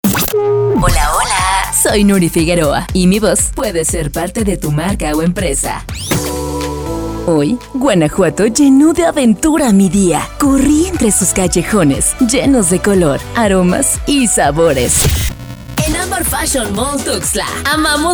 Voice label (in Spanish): spots, voces